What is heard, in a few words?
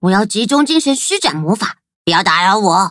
loading, zh-hant; cookie run